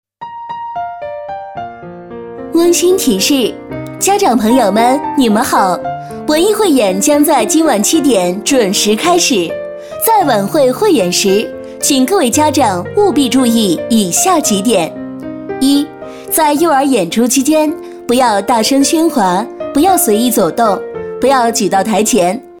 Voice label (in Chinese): 女 广播语 演出温馨提示, 国语女声配音